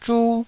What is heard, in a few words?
"zhu1", Taiwanese